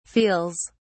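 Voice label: "feels", British English, dictionary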